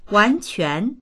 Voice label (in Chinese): "wan2quan2", voices; 完全; wánquán; 完全である